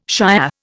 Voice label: "Shiah", Indian